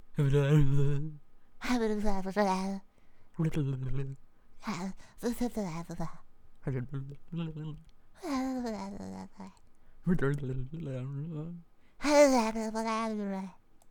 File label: Nonsensical Gibberish